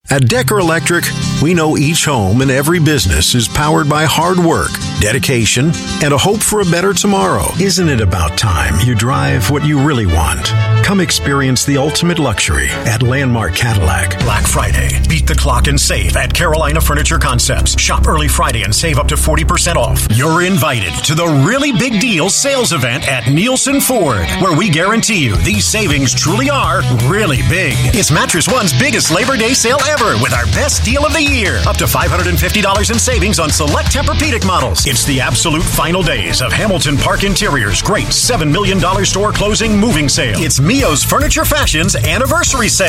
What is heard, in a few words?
US English Retail